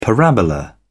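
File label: "parabola", British English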